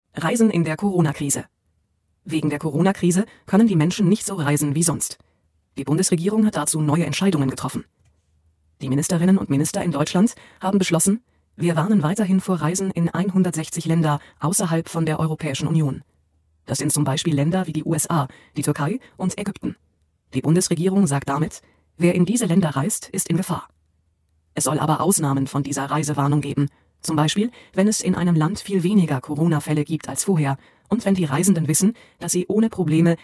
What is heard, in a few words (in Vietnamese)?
Luyện nghe tiếng Đức A2